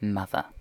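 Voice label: "mother", British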